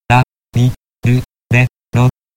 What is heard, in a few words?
japanese rarirurero